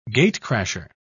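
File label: "gatecrasher", British English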